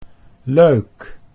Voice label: "leuk", Dutch